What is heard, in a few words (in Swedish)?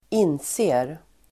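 "inser", Swedish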